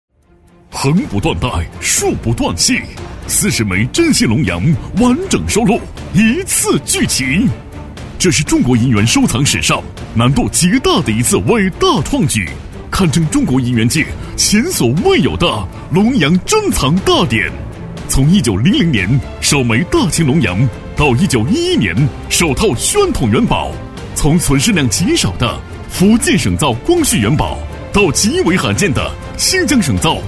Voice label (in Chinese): 男 电视促销广告; 性价比王 激情力度